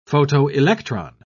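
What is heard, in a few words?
"photoelectron", British English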